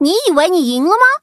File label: touch, zh-hant; cookie run